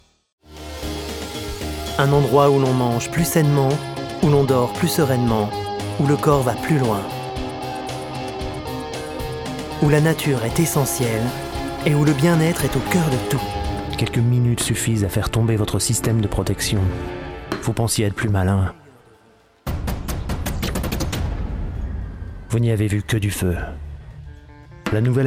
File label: French